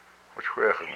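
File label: "my anus", Hupa, male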